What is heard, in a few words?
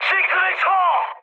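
Korean bailout mood high, speech; War Thunder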